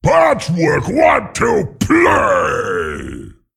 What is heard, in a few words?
Male Abomination Play